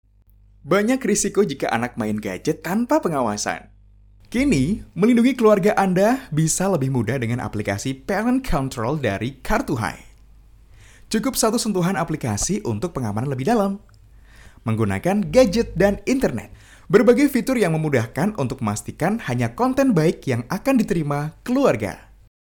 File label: CASUAL INDONESIA